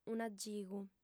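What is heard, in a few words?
"un'agigu", Sardinian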